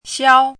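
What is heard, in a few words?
"xiao1" Mandarin Chinese, high level tone; chinese-voice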